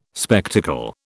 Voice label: "spectacle", British